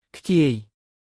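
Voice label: "ខ្ជាយ", Khmer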